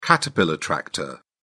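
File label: "caterpillar tractor", British English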